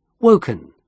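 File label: "woken", British English pronunciation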